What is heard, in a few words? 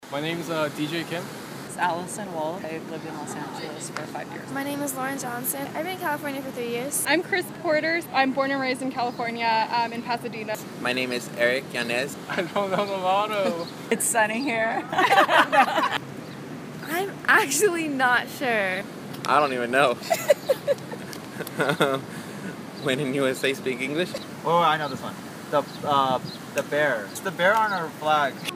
Vox Pop